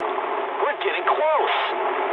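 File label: English near waypoint mood medium; War Thunder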